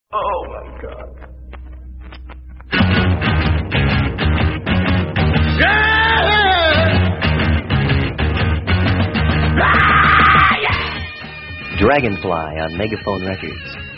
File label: lp ad; psychedelic promos and radio spots